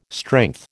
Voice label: "strength", American

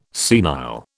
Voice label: "senile", British

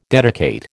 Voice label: "dedicate", American

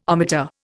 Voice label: "ohmmeter", Indian

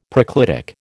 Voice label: "proclitic", American

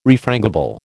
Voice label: "refrangible", American

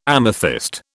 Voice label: "amethyst", British

